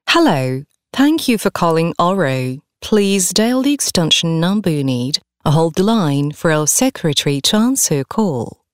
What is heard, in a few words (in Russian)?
Англоязычная запись диктора на IVR; ДИКТОРЫ ДЛЯ IVR